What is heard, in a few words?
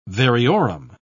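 "variorum", British English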